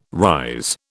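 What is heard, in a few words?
"rise", British English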